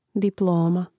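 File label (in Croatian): "diploma", Hrvatski; mreznik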